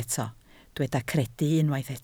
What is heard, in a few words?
"credu", Welsh